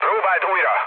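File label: Hungarian bomb failure mood low, speech; War Thunder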